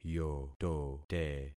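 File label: "yotote", concatenated syllables; Affixing experiment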